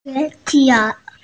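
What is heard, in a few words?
"xue xiao" Chinese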